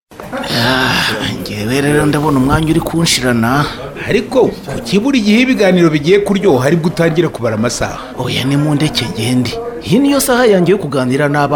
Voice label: RADIO SPOT SUPPORT TO CHILDRENS EARLY LEARNING AND STIMULATION